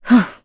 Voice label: Landing, jp; Priscilla; kingsraid